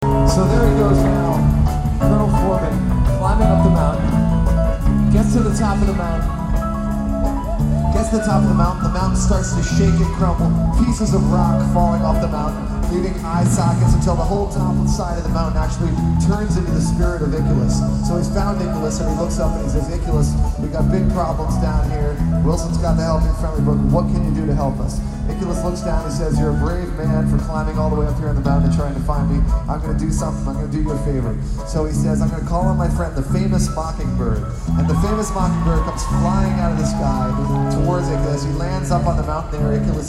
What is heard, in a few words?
narration